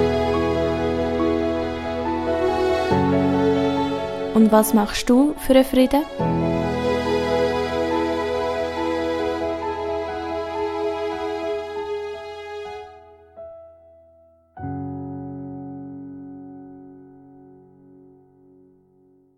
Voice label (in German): Jingle Weltfriedenswoche